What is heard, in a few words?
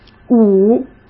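"五", Mandarin Chinese; chinese-flashcards; hsk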